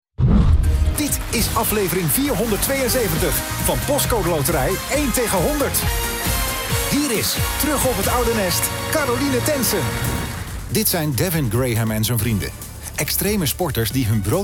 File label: tv voice over; demo